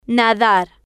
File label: "nadar", spanish